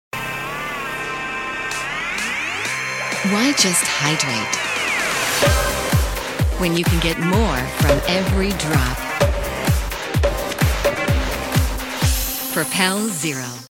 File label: upbeat